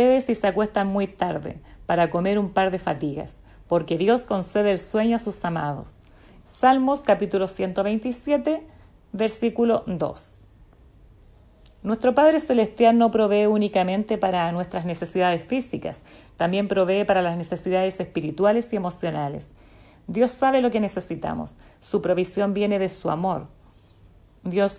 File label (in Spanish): devocional